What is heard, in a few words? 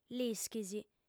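"l'ischis", female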